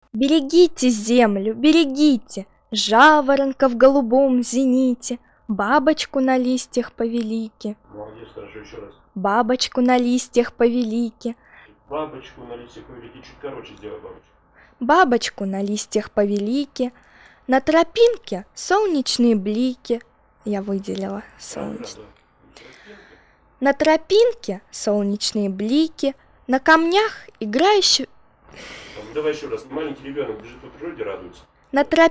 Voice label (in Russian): obrabotka golosa zapis diktora; rezhissjor